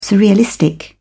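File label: "surrealistic", British English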